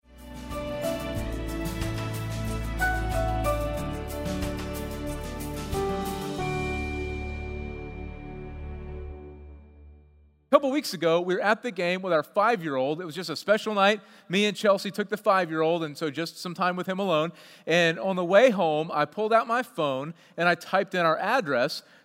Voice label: Sermon English